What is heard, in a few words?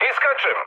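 Serbian bailout mood med, speech; War Thunder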